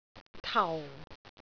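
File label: "tau4", Cantonese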